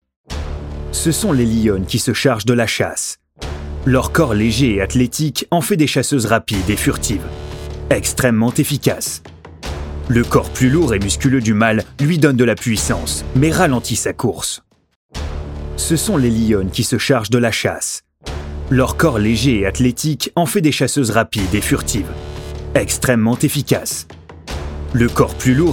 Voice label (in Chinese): 大气激情